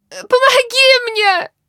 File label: help, human woman wounded heavy; Stalker Anomaly